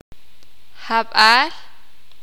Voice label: "lluvia", sakapulteko

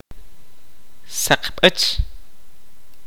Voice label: "granizo de hielo", Kaqchikel

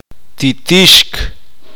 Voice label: uspanteko fluir

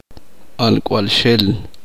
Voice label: uspanteko hijo de mujer

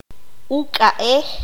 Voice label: "cuerno", Q'anjob'al

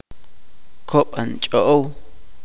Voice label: "rata", chuj

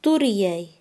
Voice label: "molestar", Quechua Chanka

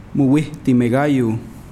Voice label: Nawat palabras intestinos; pipil

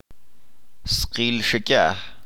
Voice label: cielo azul, chalchiteko

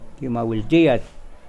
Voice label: Nawat palabras broma; pipil

